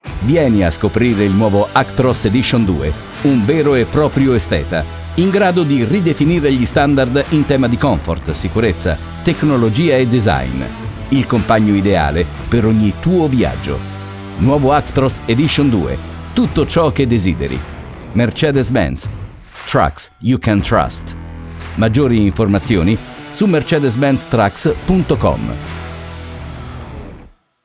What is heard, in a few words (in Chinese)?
意大利语男 广告专题 激情有磁性